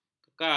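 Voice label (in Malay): "kekar", Malay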